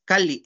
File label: "kalli", Náhuatl; casa(s); house(s)